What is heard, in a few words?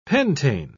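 "pentane", English, British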